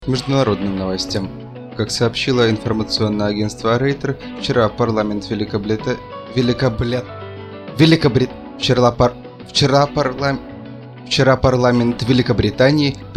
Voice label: british